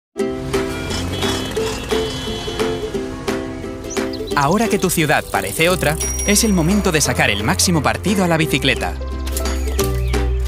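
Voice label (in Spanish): e-learning, Spain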